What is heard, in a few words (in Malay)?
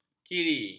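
"kiri", Malay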